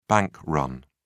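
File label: "bank run", British English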